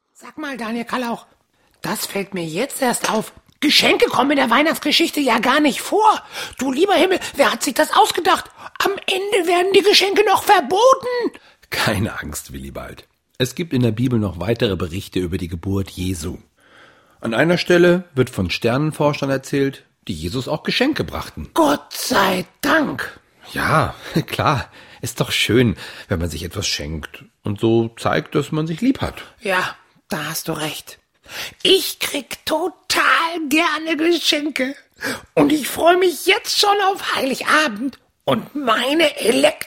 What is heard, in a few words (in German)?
Hoerspiel; Willibald